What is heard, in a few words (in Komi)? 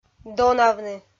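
"донавны", Komi, female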